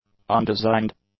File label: "undesigned", english; adjectives